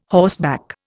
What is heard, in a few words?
"horseback", Indian